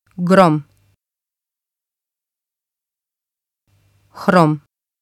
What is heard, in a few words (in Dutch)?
"grom", bosnisch; uitspraak; donder, donderslag